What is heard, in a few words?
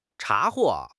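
"查获", Mandarin Chinese; HSK; HSK6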